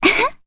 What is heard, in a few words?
Vox Happy, jp; Cassandra; kingsraid